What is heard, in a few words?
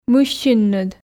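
"мыссинныд", Komi, female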